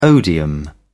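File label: "odium", English, British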